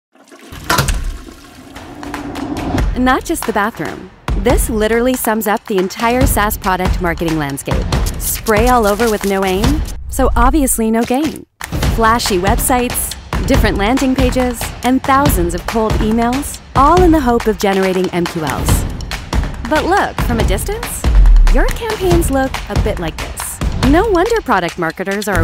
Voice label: Animation Explainer

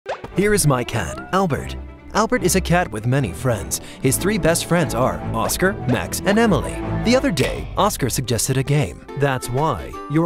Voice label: Explainer

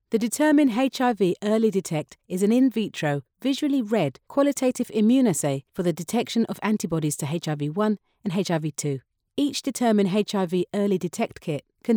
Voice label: Relatable Health Real Person Narration

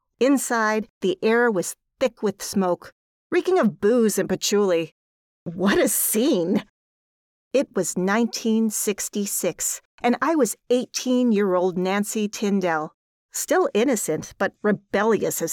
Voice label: Audiobook Young Adult

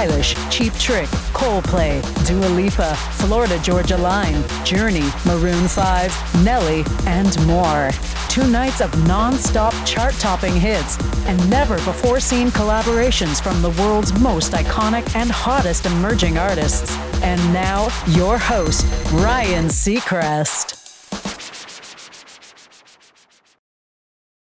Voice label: RADIO LIVE ANNOUNCER w music; IHEART RADIO

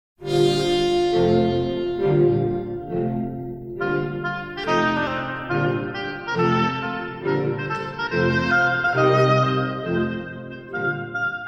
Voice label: Book Non-Fiction

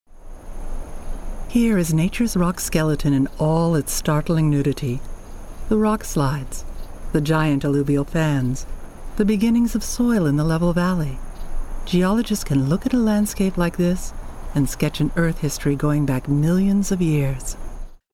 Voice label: Nature Documentary

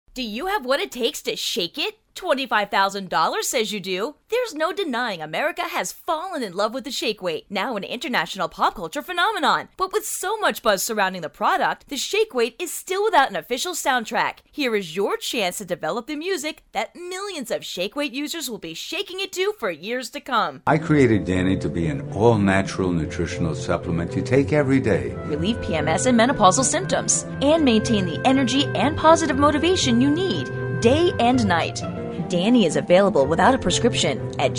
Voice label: Infomercial

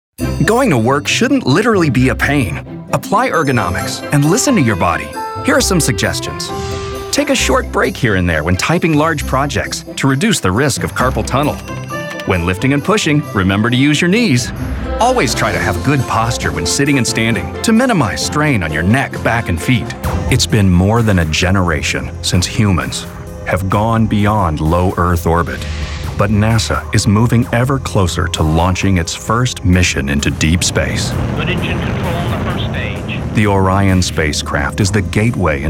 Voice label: Narrations

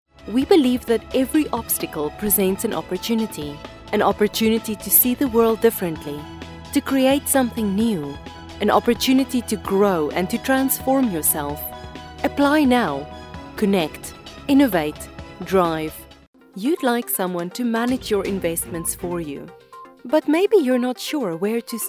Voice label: Short Corporate

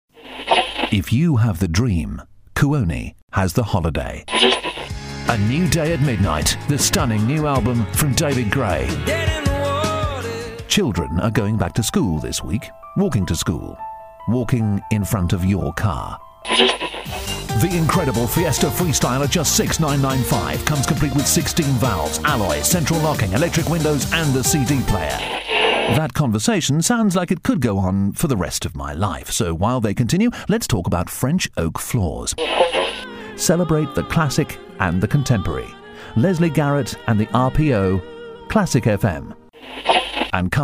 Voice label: Commercials

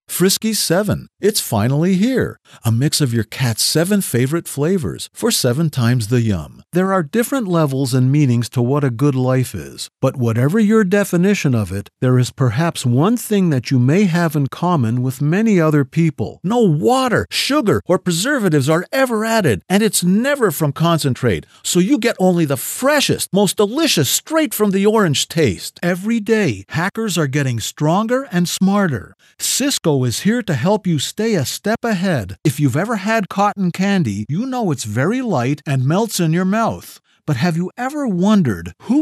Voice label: Dry Voice Compilation

